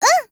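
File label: agree, Japanese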